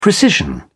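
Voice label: "precision", British English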